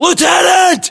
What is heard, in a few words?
rank lieutenant, US